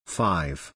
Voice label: UK